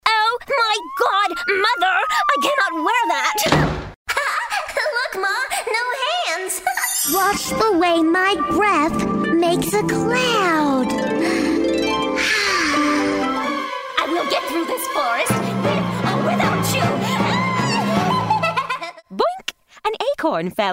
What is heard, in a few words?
animation